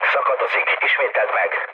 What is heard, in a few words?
hun chat, speech hun; War Thunder